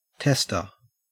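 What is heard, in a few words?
"tester", Australian; pronunciations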